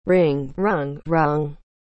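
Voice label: "wring", English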